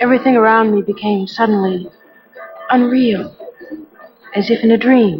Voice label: Unreal, Spoken, Industrial